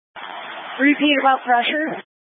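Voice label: Incident Tactical 2, Scanner audio recording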